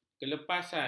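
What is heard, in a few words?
"kelepasan", Malay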